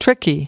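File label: "tricky", US English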